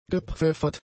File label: "gepfeffert", German; adjectives